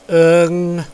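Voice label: "eng", Mandarin Chinese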